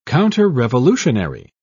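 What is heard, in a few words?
"counterrevolutionary", British English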